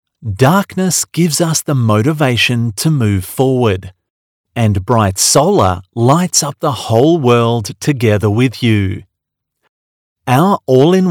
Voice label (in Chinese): 外籍男 一体化太阳能路灯录音, 澳大利亚口音，偏英式